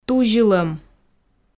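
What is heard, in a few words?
"тузьылӧм", Komi, female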